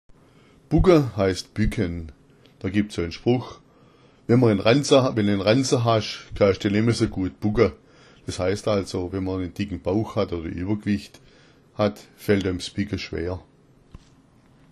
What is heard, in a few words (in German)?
bugga, schwaebisch; Bugga ist bücken; wenn en Ranza hasch, kaasch de nemme so guat bugga = Wenn du einen dicken Bauch / Übergewicht hast, fällt dir das